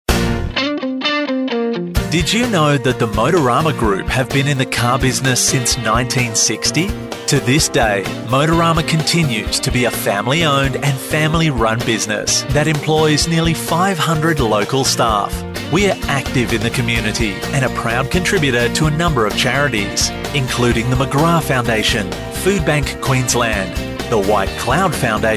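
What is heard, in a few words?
AU, voiceovers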